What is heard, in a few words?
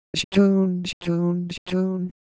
Semai, ideophones; Motion